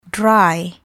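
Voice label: "dry", English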